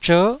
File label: "zher9", Taiwanese syllable